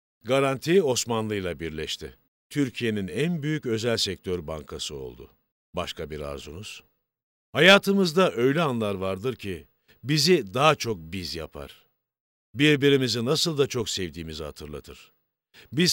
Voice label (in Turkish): ERKEK